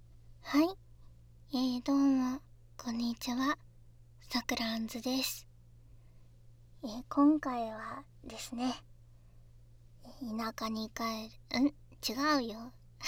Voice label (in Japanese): フリートーク